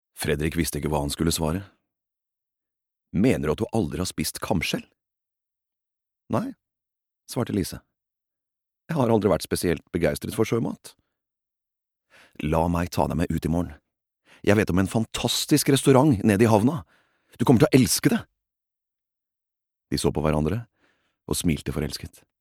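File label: Norwegian audio book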